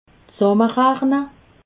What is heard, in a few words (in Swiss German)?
"zsammerachne", alsace